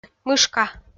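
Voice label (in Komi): "мышка", Komi, female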